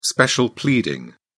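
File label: "special pleading", British English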